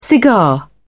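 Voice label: "cigar", British English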